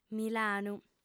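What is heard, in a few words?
"milanu", female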